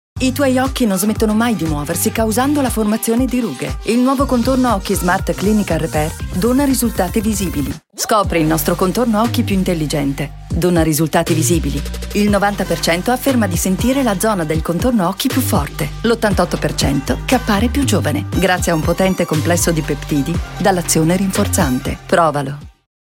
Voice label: italian female, Young Natural Professional Italian Voice; clinique